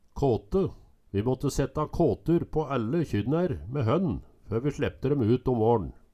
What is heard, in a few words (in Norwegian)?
kate, Numedalsmål